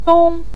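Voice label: "dong" Mandarin Chinese, neutral tone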